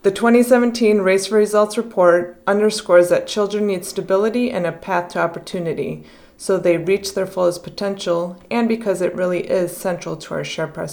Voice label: english; RaceforResults